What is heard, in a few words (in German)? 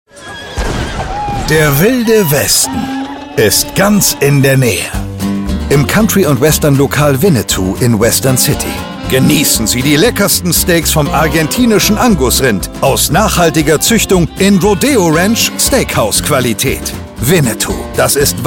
Gastro Restaurant funny